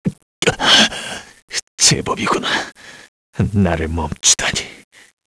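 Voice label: Vox Dead, Korean; Lusikiel; kingsraid; voices heroes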